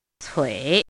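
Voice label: "cui" third tone, pinyin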